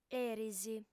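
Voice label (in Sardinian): "eris", female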